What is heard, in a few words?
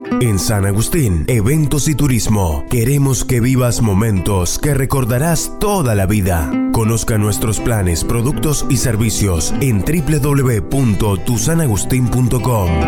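Spanish, commercial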